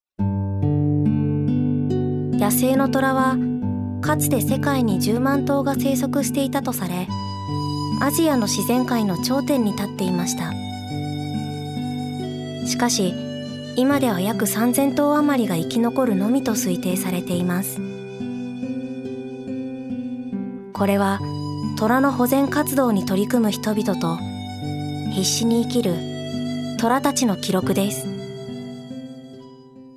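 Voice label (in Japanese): ナレーション, 女性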